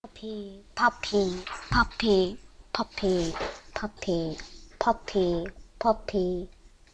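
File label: "puppy", spoken word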